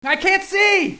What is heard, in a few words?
"cant see", hostage